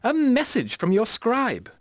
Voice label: Announcer